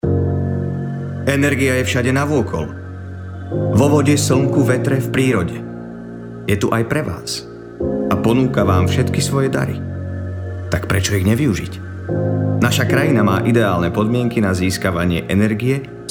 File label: voiceover